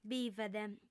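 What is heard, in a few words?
"bivet", female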